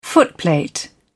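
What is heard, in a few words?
"footplate", en, uk British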